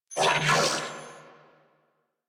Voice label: Surprised Grineer Male; New War; Narmer

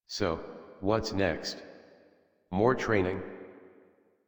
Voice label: Adult, en, Dialog; Cave; Warframe; Duviri Paradox Quest

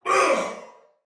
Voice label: Pain, male soldier; Dialog; Barks; Battle; Sinecure

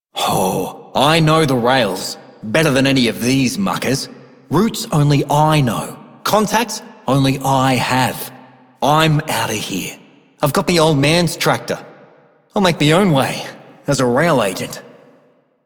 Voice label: male worker; en; Dialog; Warframe; Solaris Venus

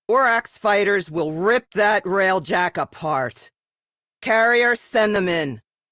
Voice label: Captain Carrier Activate, Captain Female; Rail Jack

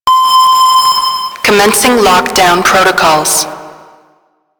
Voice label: Lock Down, Ship Voice

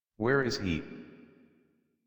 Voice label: Adult en; Dax Battle; Warframe; Duviri Paradox Quest

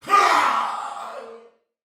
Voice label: Death Soldier Male, Battle; Dialog; Barks; Sinecure

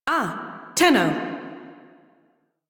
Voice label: Crew Greet Female en; Railjack Crew; Cephalon Suda

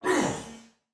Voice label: Attack Normal Soldier Male, Battle; Dialog; Barks; Sinecure

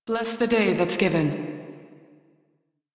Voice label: Crew Greet, Female; Dialog; en; Warframe; Railjack Crew; New Loka

